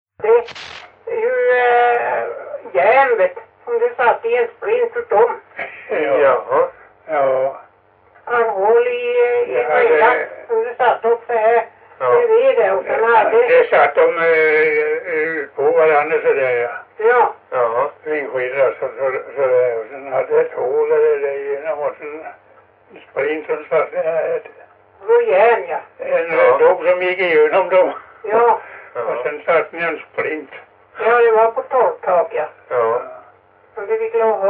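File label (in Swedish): Stenåsa Öland, svenska sagor dialekter; Folkminnen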